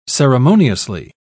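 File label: "ceremoniously", British English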